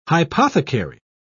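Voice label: "hypothecary", British English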